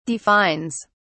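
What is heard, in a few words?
"defines", British English, dictionary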